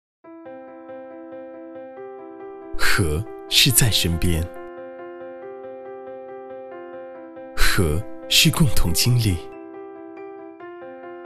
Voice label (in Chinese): 男 广告; 和4G样音